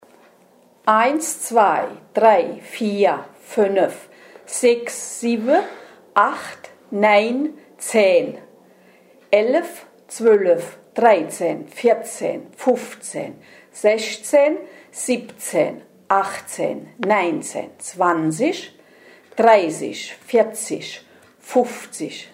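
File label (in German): zahlen, Hunsrück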